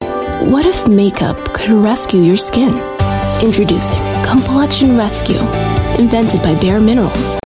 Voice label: US English; Complexion Rescue by Bare Minerals